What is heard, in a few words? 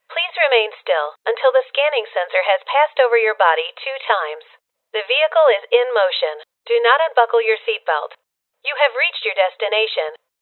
Futuristic Female, Character Voices